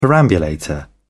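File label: "perambulator", British English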